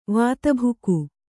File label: "ವಾತಭುಕು", Kannada